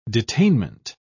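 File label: "detainment", British English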